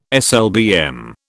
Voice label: "SLBM", British

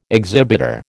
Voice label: "exhibitor", American

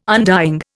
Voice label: "undying", Indian

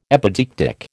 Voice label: "epideictic", American

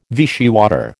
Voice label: "Vichy water", American

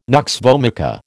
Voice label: "nux vomica", American

